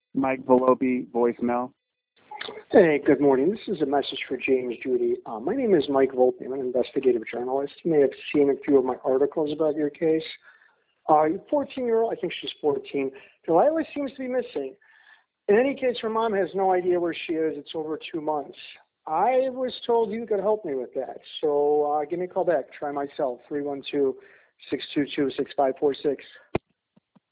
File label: voicemail